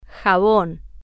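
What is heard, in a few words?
"jabon", Spanish, female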